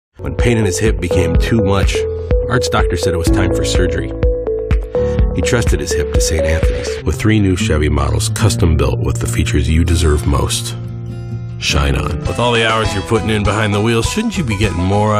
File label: commercial voice over